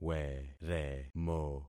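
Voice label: "weremo", concatenated syllables; Affixing experiment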